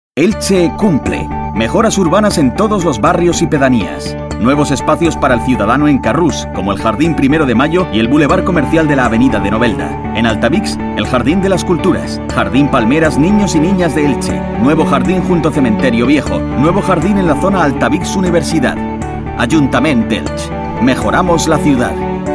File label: Commercial Political; Elche